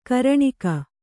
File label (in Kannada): "ಘೂರ್ಣಕ", Kannada